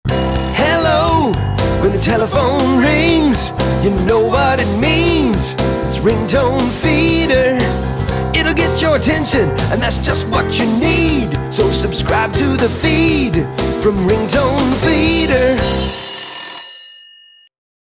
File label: advert